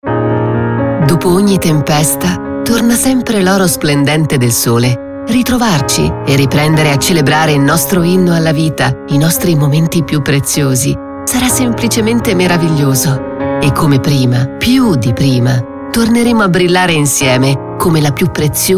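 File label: Italian Commercial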